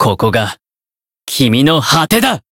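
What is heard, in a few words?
limit break, Japanese; dunarith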